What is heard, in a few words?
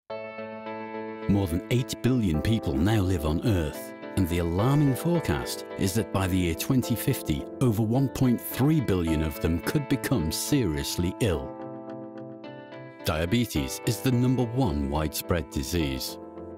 TV Spot